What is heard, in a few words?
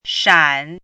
"shan3", pinyin